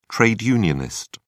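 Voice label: "trade unionist", British English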